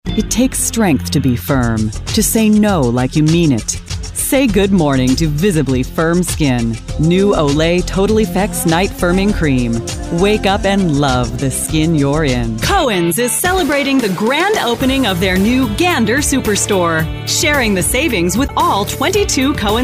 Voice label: happy